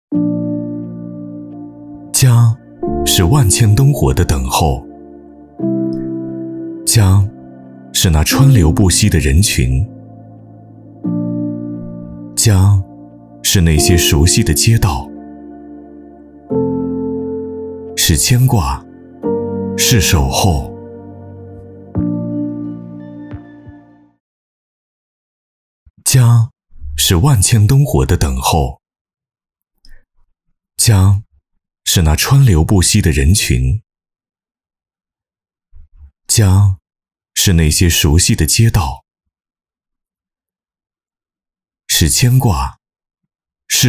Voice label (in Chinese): 男 走心旁白 大气温暖磁性